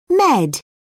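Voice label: "med", English, British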